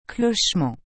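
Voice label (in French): "clochement" French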